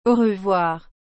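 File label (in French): "Au revoir", French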